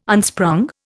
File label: "unsprung", Indian